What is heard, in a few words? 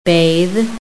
"BATHE", american; PHONETIC